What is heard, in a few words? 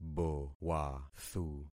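"bowathu", concatenated syllables; Affixing experiment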